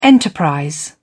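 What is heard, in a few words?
"enterprise", British English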